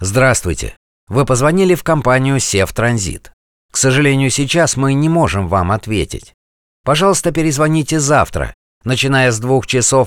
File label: IVR voice